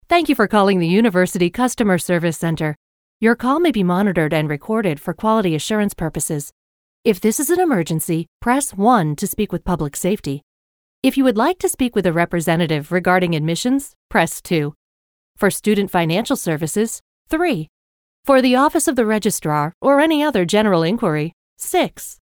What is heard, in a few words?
us english ivr